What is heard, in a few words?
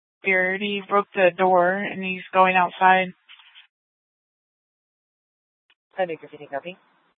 Mesa PD Fiesta Dispatch; 1 Fiesta Dispatch